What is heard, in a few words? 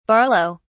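"barlow", British English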